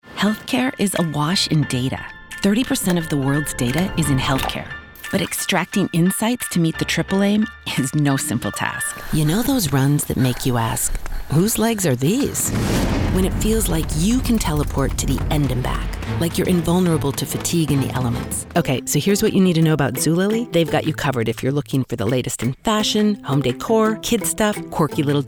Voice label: Commercial